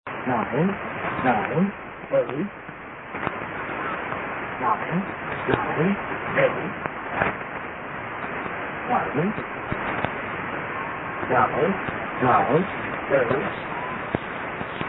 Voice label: numbers 6931kHz; Unid Numbers; SPY Numbers and Oddities